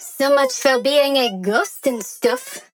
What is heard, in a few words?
Taunt Directed; Maeve; strix; paladins